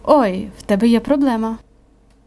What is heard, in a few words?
dialogue, Ukrainian